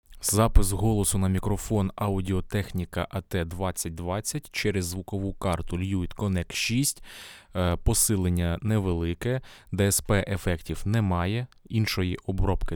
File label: audio technica at 2020 voice ukr; Audio-Technica AT 2020 - Голос